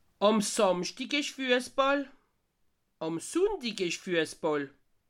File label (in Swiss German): "fuessball", alsace